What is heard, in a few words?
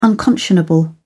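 "unconscionable", British English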